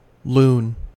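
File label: "loon", US English; pronunciations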